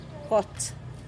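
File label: "gots", Teotitlán del Valle Zapotec; yellow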